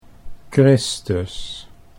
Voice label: "Christus", Dutch